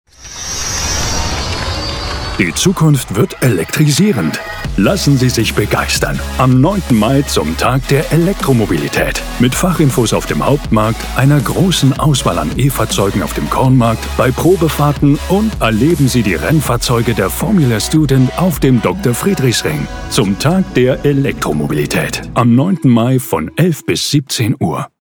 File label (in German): Hoerprobe Werbung; Tag der Elektromobilität